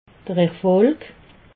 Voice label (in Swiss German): "erfolig", alsace